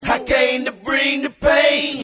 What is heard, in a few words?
acapella